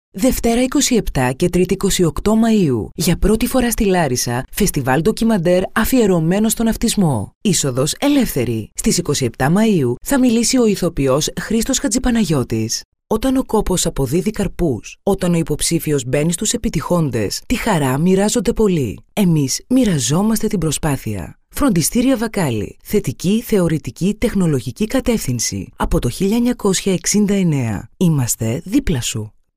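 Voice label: Greek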